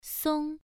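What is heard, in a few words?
"song" Mandarin Chinese, first tone, pronunciation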